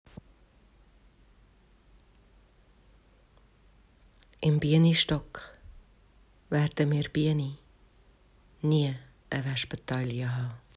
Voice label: Berndeutsch